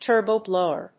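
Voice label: "TURBO BLOWER", English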